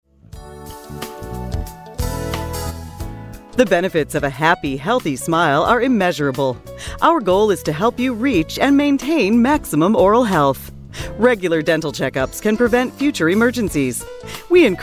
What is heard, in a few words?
Dentist Female Sample On Hold Message